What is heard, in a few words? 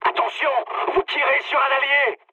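player friendly fire, mood high, French; War Thunder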